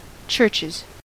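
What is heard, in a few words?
"churches", English, US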